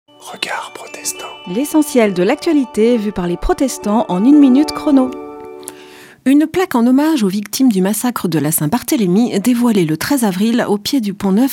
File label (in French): Spot radio; Regards protestants